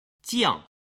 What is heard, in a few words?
"jiang", Chinese